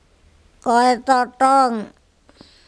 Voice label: "koetoTong", andamanese; tee

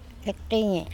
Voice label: "ekTEnye", andamanese; spit

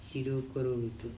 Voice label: "siro korobito" worm, andamanese

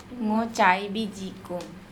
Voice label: i eat anything mo caybi jikom, Andamanese

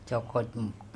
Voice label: "cokHoTmo", Andamanese; bird

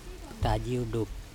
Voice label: "tajio", Andamanese; raw fish

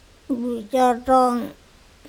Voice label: bucOTOng mangrove roots, andamanese

